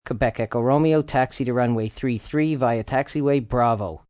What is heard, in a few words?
Tower Taxiing, Air traffic controller, male; Pa Announcement; VoiceBox